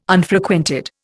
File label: "Unfrequented", Indian